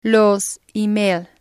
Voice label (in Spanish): "los email", spanish